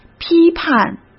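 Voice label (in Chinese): "批判" Mandarin Chinese; chinese-flashcards; hsk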